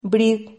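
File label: "brid", Spanish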